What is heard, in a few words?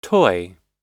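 "toy" English word; oxford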